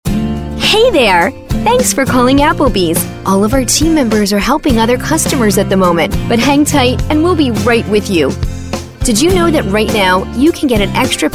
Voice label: Telephony